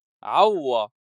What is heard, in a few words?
"3awwa", South Levantine Arabic